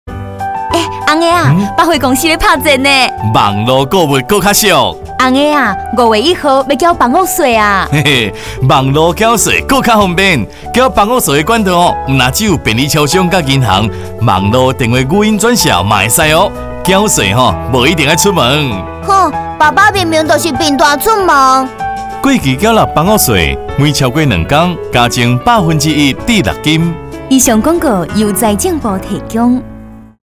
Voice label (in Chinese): 房屋稅 台語版; 廣播; 102年度房屋稅開徵宣導短片臺語